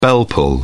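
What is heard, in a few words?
"bell pull", British English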